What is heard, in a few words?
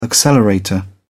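"accelerator", English, British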